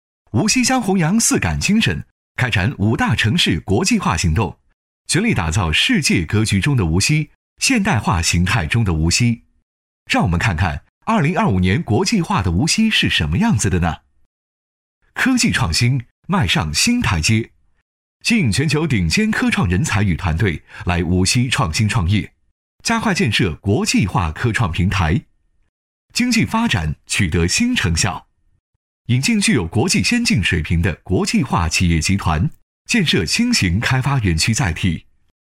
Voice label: 男 宣传片 青春活力; 无锡

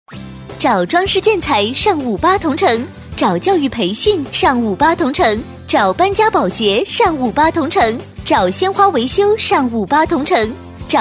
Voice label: 女 广告配音 年轻活泼; 58同城

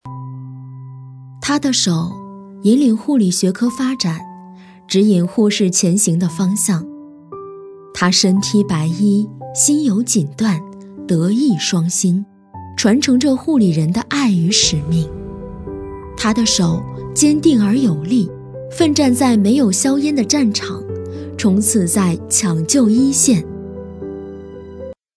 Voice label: 女, 人物宣传, 磁性抒情; 质感磁性

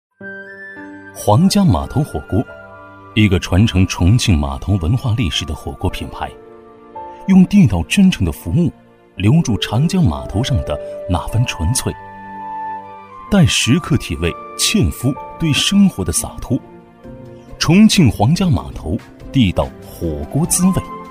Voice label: 男 纪录片 舌尖类 自然讲述; 皇家码头火锅

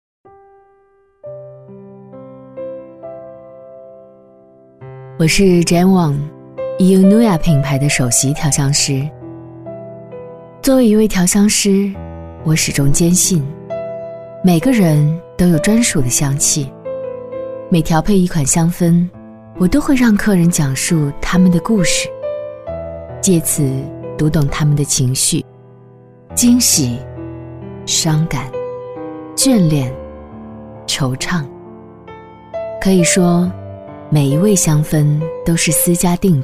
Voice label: 女-走心独白-低沉自然; 调香师

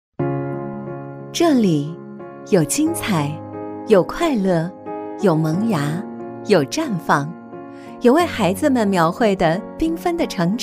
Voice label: 女 温柔质感; 学校专题; 宝盖镇中心幼儿园; 大气稳重; 成熟知性

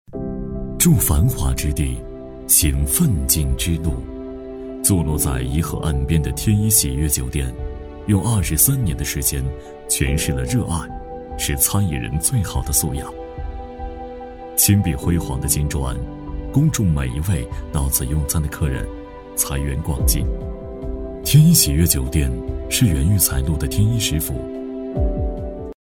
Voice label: 男 酒店宣传 品质高端; 厚重有力; 舒缓抒情; 天一喜悦酒店

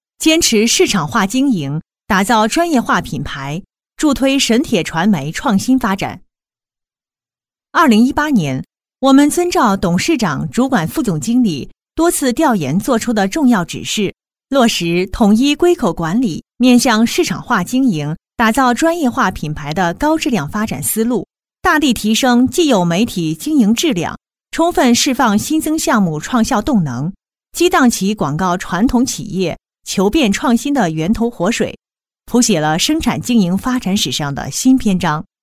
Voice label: 女 汇报专题 客观稳重; 女225-知性 温婉

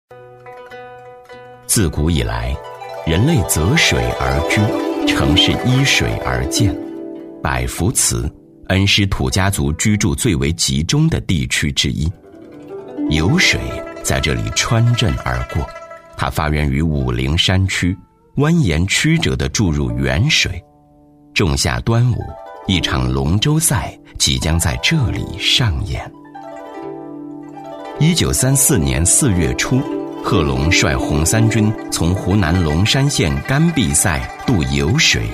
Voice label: 男 纪录片 纪录讲解; 百福祠; 男83-纪录片《百福祠》-纪录讲解

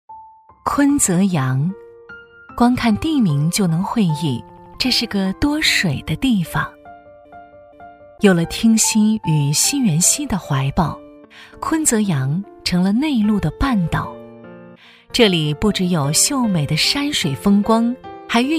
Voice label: 女 城市宣传 舒缓悠扬; 舒缓抒情; 坤泽洋

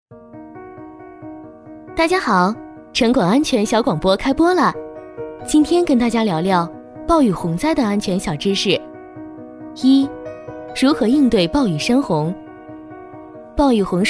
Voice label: 女 MG飞碟说; 暴雨洪灾避险知识; MG飞碟说配音

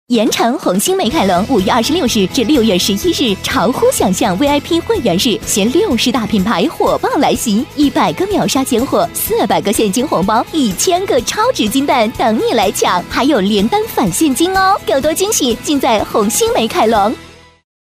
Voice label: 女, 广告配音; 红星美凯龙

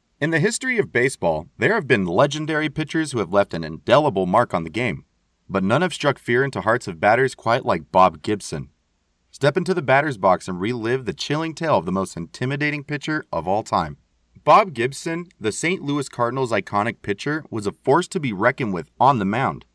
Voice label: 美式男声

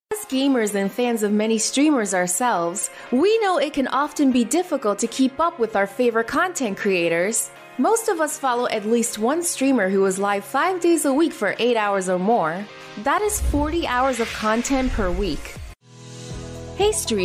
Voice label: 美式女声 广告

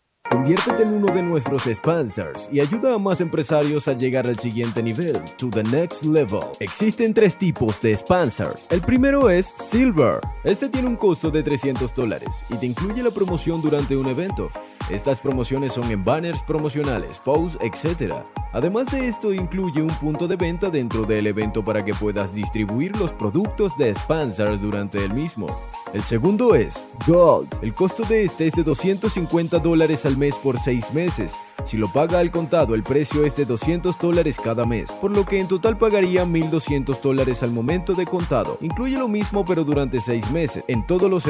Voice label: 西班牙男声

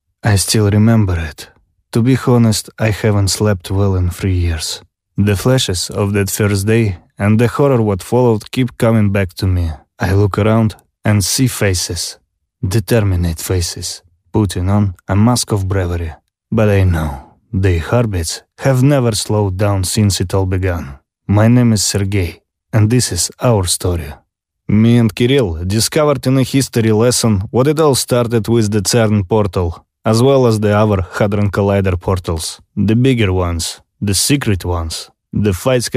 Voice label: 俄语男声 抒情风格

